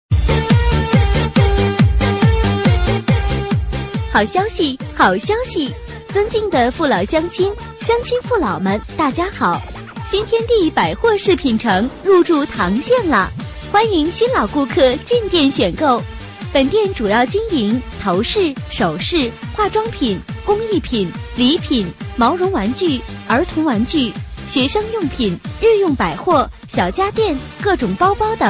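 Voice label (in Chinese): 女促销 激情活泼 商场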